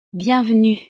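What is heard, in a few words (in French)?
"bienvenue", French; ¡Bienvenido!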